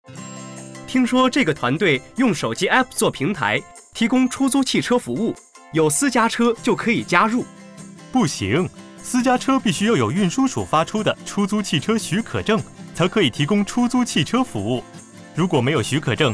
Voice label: hire car driver radio; 普通話; 電台宣傳聲帶; 出租汽車服務(司機版)